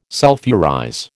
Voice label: "sulphurize", American